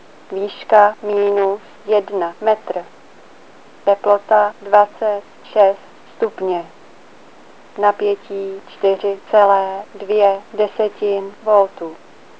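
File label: msg cz f, Czech, female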